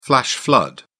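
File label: "flash flood", British English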